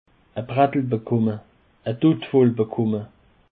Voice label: "brettel", Alsace